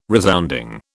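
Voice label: "resounding", British English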